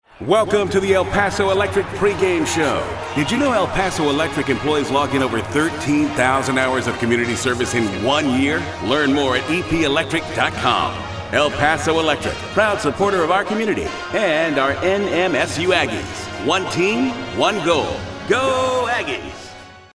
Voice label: Sportscaster